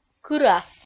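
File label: "кырас", Komi-Zyrian, female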